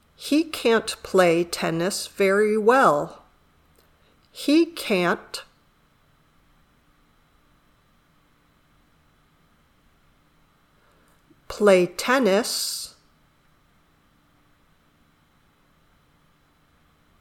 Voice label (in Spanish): Dictado